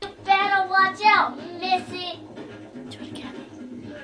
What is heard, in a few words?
you better watch out, children; VOCALS and SPOKEN WORD